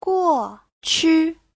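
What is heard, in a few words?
"guòqu", Chinese; Giáo trình Hán ngữ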